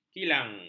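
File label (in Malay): "kilang", Malay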